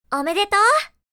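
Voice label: "Congratulations", anime girl character, japanese voice over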